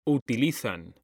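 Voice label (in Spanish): "utilizan", Spanish; mekanta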